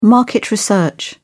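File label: "market research", English, British English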